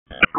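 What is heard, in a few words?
FIRE Operations, p25; FIRE OPS 1; wake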